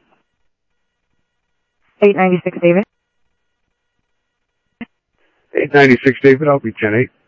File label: Central Sheriff Central, scanner audio trunk